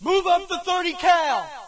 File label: us move up mg; player; Source Engine; dod